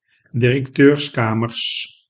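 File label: "directeurskamers", Dutch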